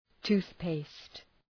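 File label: "toothpaste", English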